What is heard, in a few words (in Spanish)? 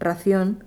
"racion", Madrid